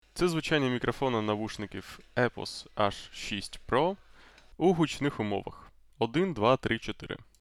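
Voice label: epos h6pro loud; Sennheiser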